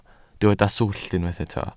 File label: "swllt", welsh